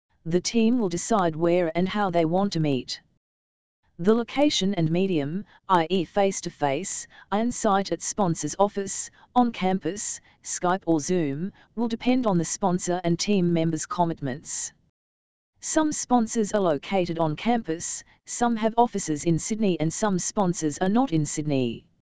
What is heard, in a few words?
dialog